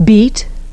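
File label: "beet", English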